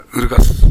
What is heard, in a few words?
"urugasu", minamiyamagata